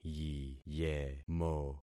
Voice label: "yiyemo", concat syllables; Affixing experiment